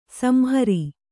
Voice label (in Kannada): "ಸಂಹಾರ", Kannada